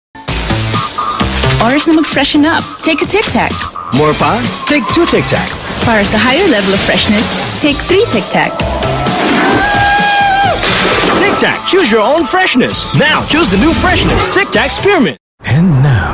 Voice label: Asian English TVC; Tic Tac Candy